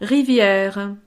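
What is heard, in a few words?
"riviere", French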